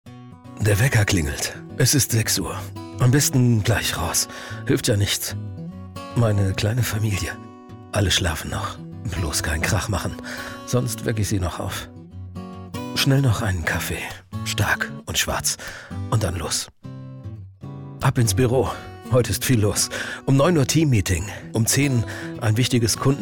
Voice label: Voice Tag ich komme

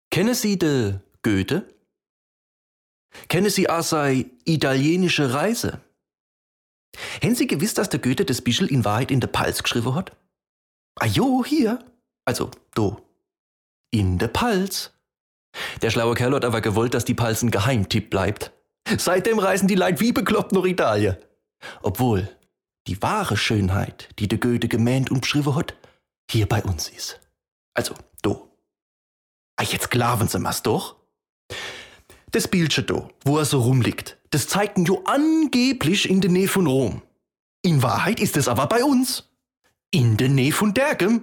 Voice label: Pfälzisch Dialekt Rolle

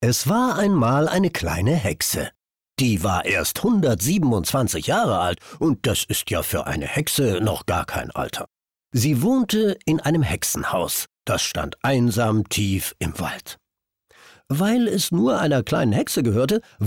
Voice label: erzaehlung

